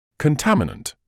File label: "contaminant", medical english uk